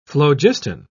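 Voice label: "phlogiston", British English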